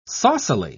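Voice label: "saucily", English, British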